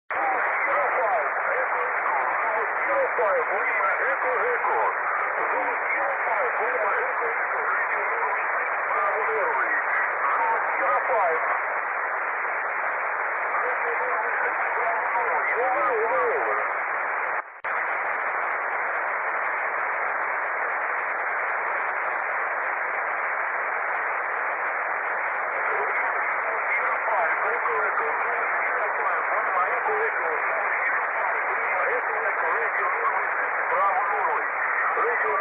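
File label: single sideband; EME; 2m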